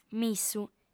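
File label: "missu", female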